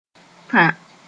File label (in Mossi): "tã", moore